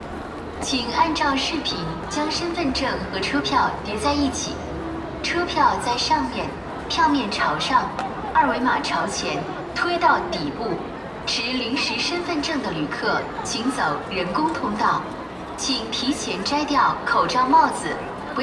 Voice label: Loop Megaphone Voice In China